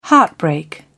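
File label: "heartbreak", British English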